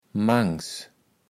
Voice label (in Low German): "mangs", kaevels platt